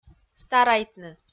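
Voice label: "старайтны", Komi, female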